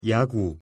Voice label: "야구", Korean; pronunciation